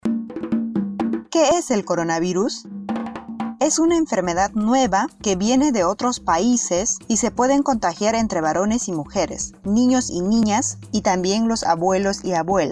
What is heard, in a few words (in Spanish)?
castellano amazonico, spot de radio; pista amazonica; que es el coronavirus